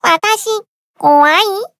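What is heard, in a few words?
story, Japanese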